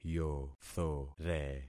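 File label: "yothore", concatenated syllables; Affixing experiment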